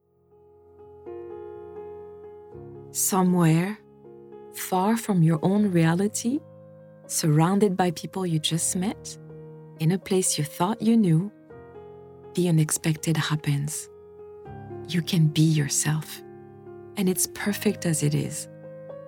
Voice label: female french english with french accent; Devine Voices

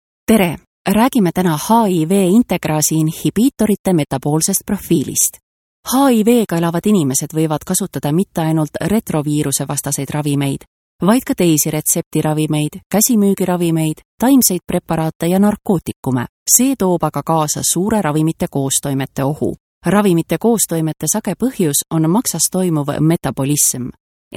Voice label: female estonian medical infomercial

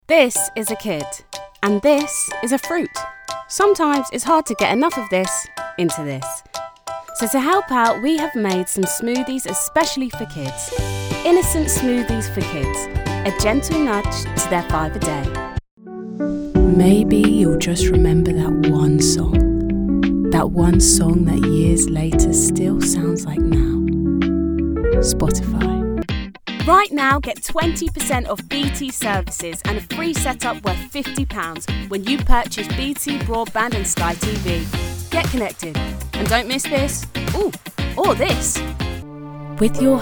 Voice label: female English commercial compilation

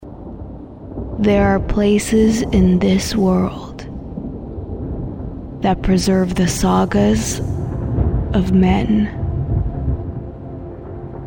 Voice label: female english canadian film trailer

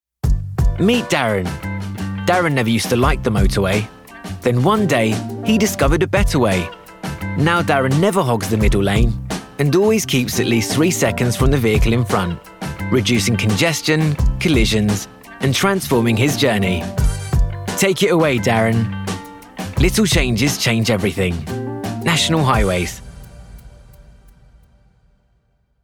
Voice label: male english commercial; english and greek

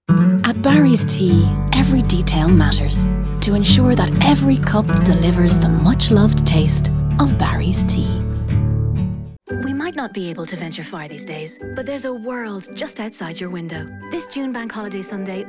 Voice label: female Irish Southern commercial compilation

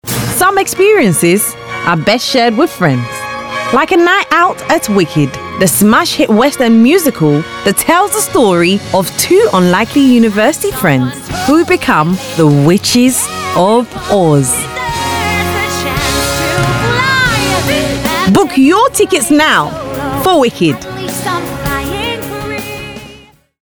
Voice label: female african and english slight ghanian accent; the musical promo; wicked the musical

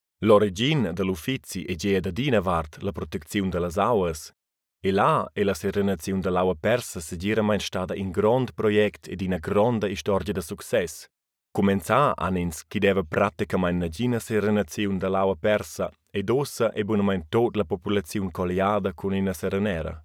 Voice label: male romansh and swiss german; Amt fuer Natur und Umwelt ANU des Kantons

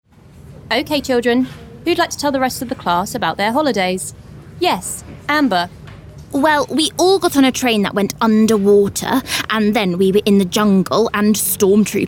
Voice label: female english rp commercial; english southern accents

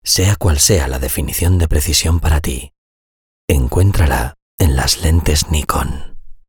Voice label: male spanish castilian commercial; Nikon

